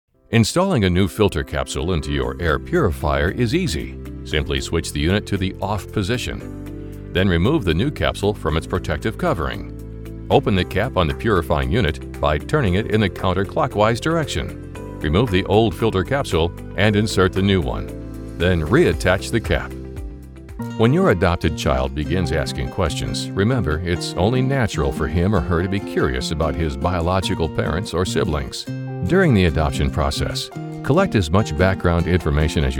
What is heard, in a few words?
Explainer